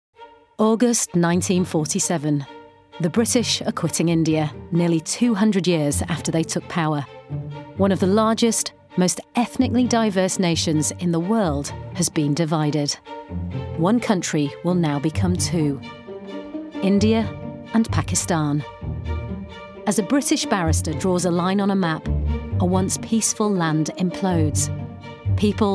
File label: Documentary